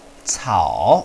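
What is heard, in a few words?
"cao", Mandarin Chinese, third tone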